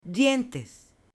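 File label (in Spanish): "dientes" Spanish, male; CUERPO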